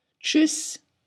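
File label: "Tschüss", German; greetings phrases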